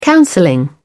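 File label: "counselling", British English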